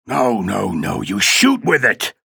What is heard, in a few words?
chatter positive; ranger; frag